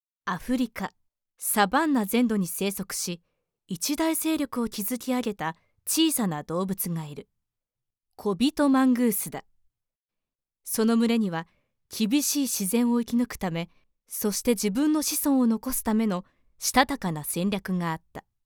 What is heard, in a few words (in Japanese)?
ドキュメンタリー